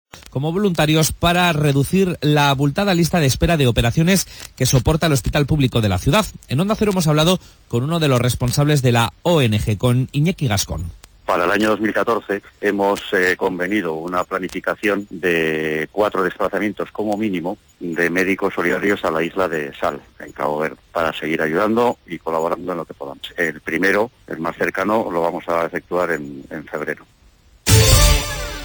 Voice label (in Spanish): onda cero noticias